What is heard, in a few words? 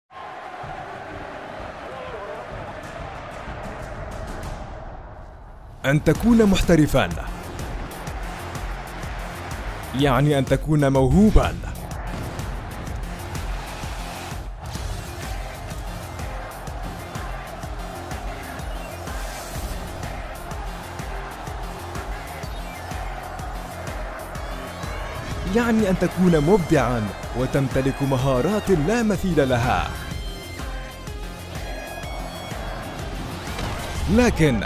arabic movie trailers